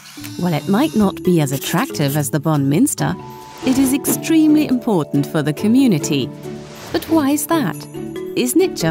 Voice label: EN Waste Disposal Erklaerfilm; Bonn